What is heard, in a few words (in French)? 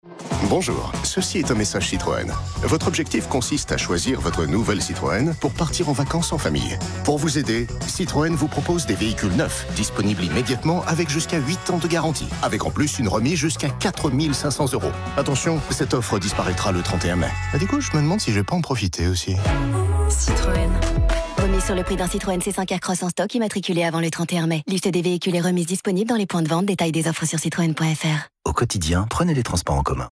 Pub radio; Citroen